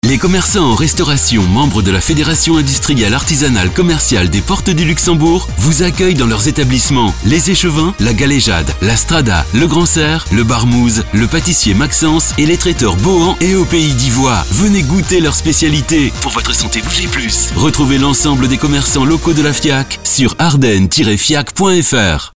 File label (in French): Spot; PORTES DU LUXEMBOURG; Fiac octobre 2024